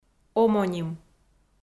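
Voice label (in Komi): "омоним", Komi, female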